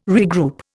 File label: "regroup", Indian